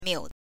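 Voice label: "miu", Mandarin Chinese, neutral tone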